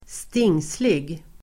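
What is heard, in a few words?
"stingslig", Swedish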